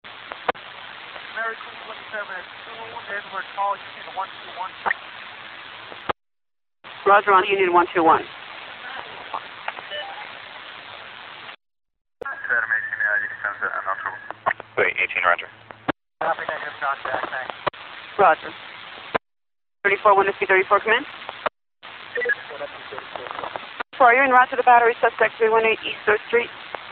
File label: Police radio; radio signals